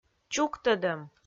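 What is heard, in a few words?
"чуктӧдӧм", Komi-Zyrian, female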